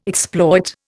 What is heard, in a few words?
"exploit", Indian